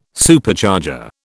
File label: "Supercharger", British